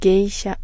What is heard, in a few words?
"geisha", female; MISC and SPOKEN WORD